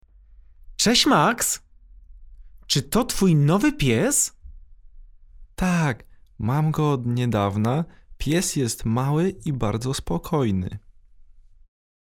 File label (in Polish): dialog